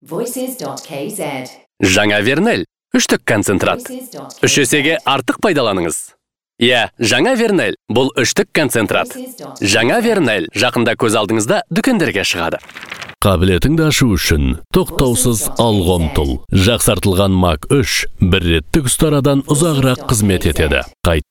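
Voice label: Kazakh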